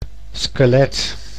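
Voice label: "skelet", Dutch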